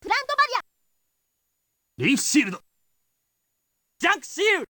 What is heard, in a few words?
Voices JP; Mega ARC